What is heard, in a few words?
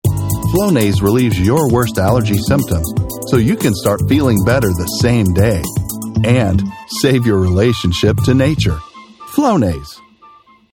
Commercial Casual Upbeat